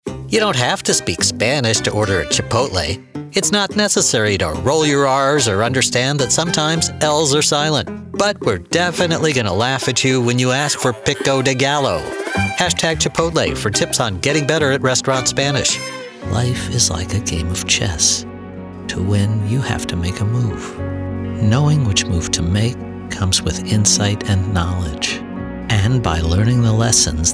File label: Commercial